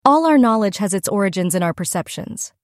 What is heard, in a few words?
Female Voices